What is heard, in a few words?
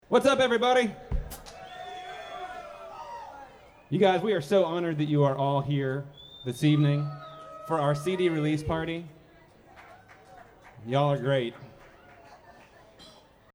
Talking Intro; LastConcert